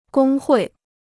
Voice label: "工会", Chinese

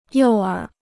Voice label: "诱饵", Chinese

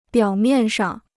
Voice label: "表面上", Chinese

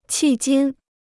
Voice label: "迄今", Chinese

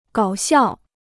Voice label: "搞笑", Chinese

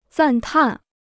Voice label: "赞叹", Chinese

